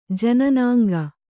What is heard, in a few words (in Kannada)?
"ಜನ್ನಂಗೈ", Kannada